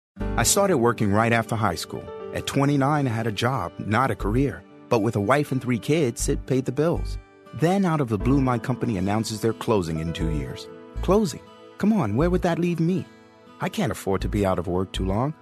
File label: american natural